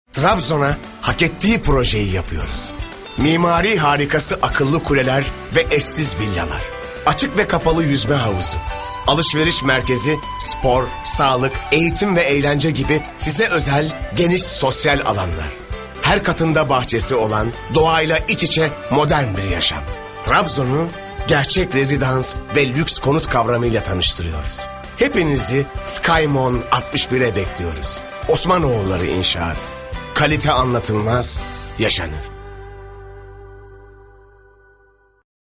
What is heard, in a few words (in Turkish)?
tnitim filmi; kurumsal imaj